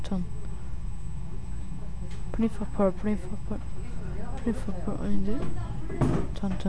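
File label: "major", spoken word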